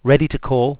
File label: ready to call, eng; sgh-d720; AnyVoice